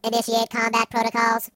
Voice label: "Come on then", Robot; hedgewars